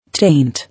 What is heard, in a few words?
"Taint", English